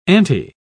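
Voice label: "aunty", British English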